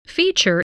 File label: "feature", spelling